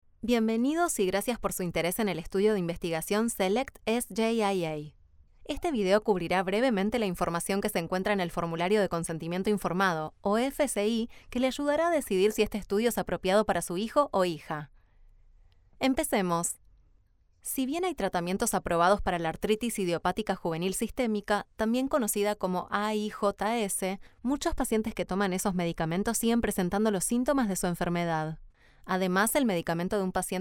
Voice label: spanish argentinian medical narration, voice actors